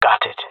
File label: agree, jungle male; vo; agents; Source Model Packs; Counter Strike Model Pack; CS2